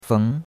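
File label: "feng", Chinese, second tone; Cours-de-Chinois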